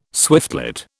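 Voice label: "swiftlet", British English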